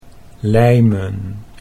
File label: "lijmen", Dutch